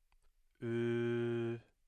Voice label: mid-high front rounded vowel

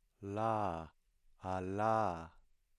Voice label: voiced lateral approximant

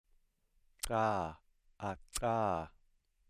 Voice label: dental click

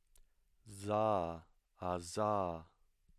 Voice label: voiced alveolar fricative

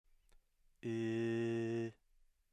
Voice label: higher-mid front unrounded vowel

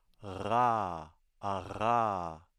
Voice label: voiced uvular trill

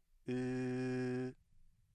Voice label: high central unrounded vowel

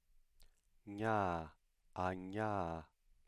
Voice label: voiced palatal nasal

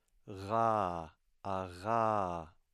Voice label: voiced velar fricative

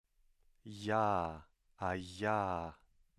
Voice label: voiced palatal fricative

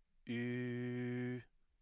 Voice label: high central rounded vowel

